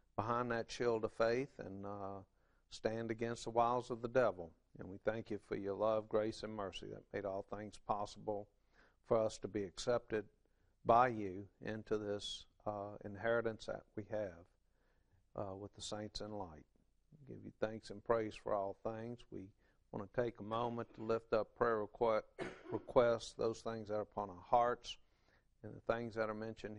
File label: English